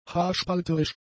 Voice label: "haarspalterisch", German; adjectives